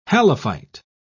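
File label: "halophyte", British English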